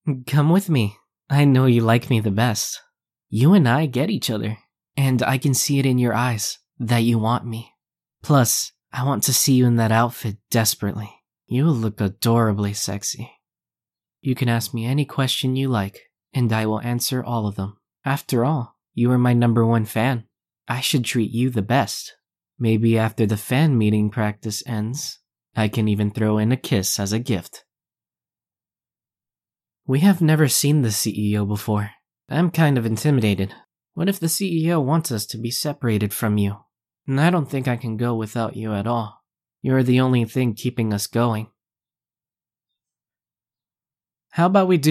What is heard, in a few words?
Voiceover; Smart